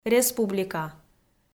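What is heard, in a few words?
"республика", Komi, female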